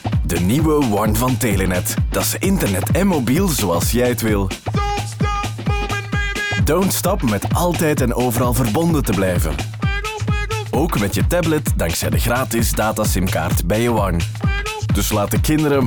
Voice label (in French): radio; tbwa; aanstekelijk voor telenet